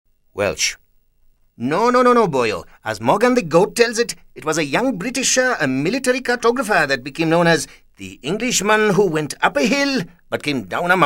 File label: WELSH